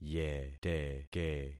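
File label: "yeteke", concatenated syllables; Affixing experiment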